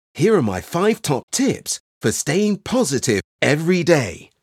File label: Wellness and Lifestyle Inspirational British Accent